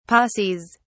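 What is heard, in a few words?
"posses", British English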